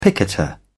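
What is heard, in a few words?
"picketer", British English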